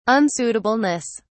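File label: "unsuitableness", British English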